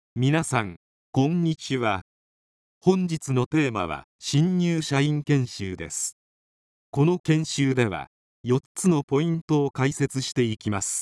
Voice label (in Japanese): DNN音声合成方式による女性の声; 近年の音声合成ソフト